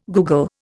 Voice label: "googol", Indian